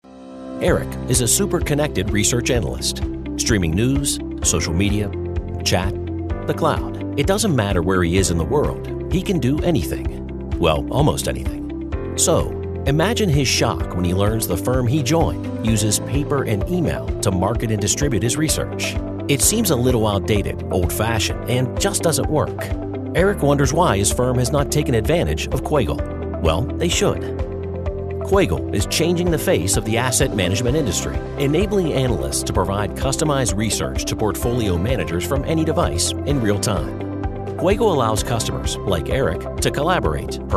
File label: Explainer